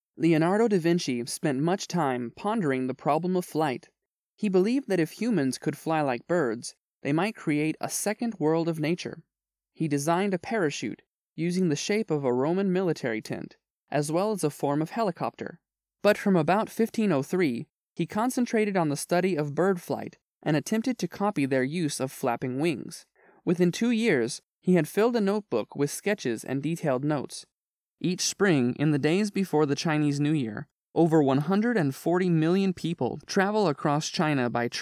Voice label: 有声书配音

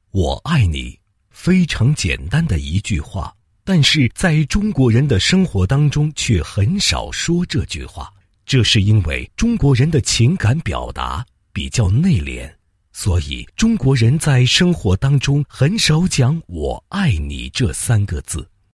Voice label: 男 片头 秀片头文字; 可喜可乐秀片头文字

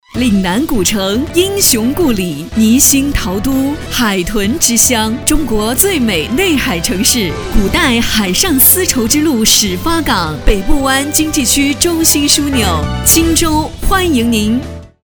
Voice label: 女 广告旅游宣传 古城

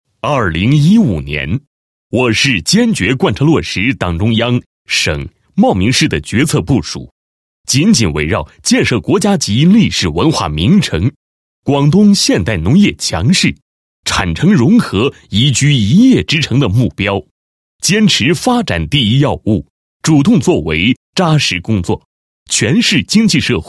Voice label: 男 解说词 经济社会发展情况回顾解说词; 高州

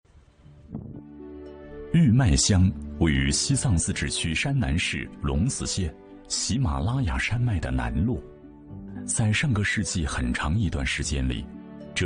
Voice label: 男 玉麦乡; CCTV1

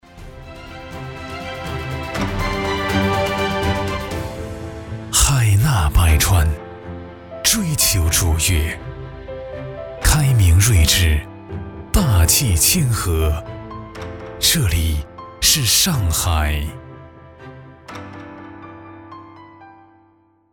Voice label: 男, 卫视台呼, 这里是上海

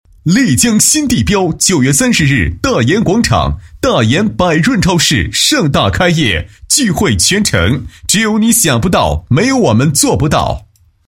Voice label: 男-促销男; 丽江新地标

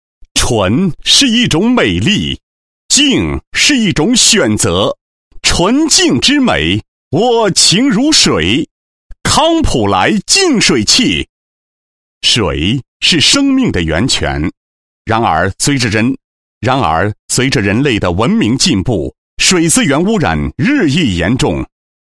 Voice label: 男 产品介绍 净水器宣传简介; 康普来

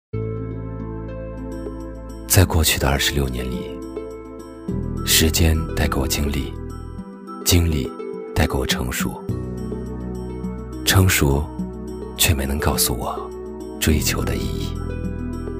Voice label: 男 婚礼旁白 磁性温和

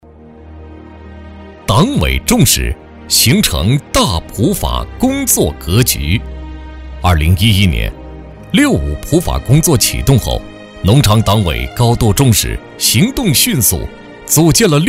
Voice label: 男 普法 正式 浑厚; 六五普法; 央视老师

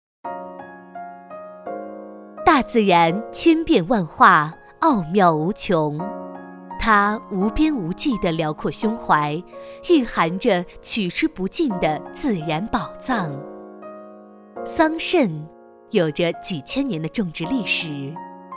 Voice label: 女 专题 古韵; 夏氏桑园; 方言

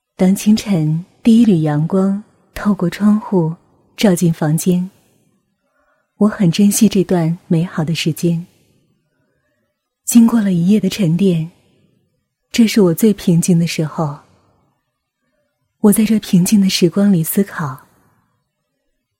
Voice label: 女 自白, 积木玩具宣传专题; 骏达隆

